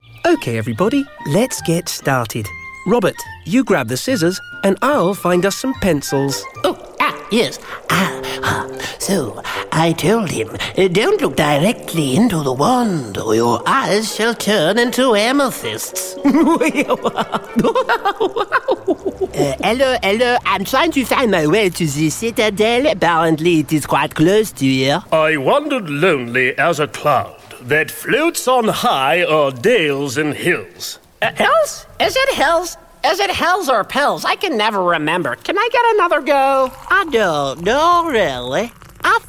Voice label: Animation